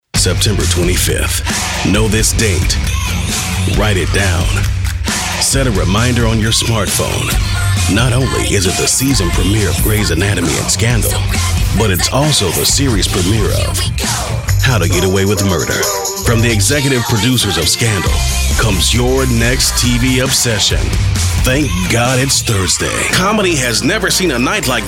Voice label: promo men

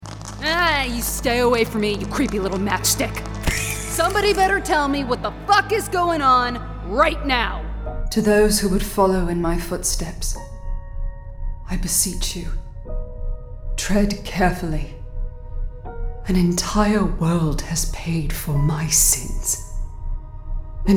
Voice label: animation women interactive

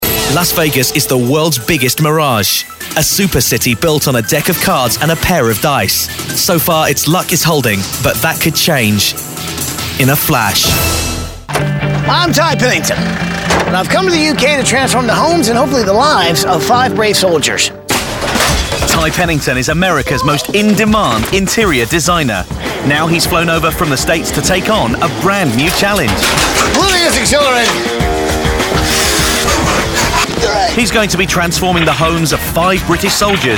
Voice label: narration

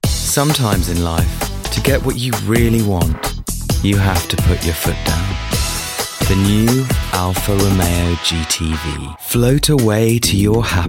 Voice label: languages men english

